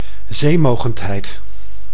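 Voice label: "zeemogendheid", Dutch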